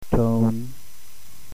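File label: tone 5, Cantonese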